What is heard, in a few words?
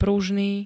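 "pružný", Slovak; guma je p-á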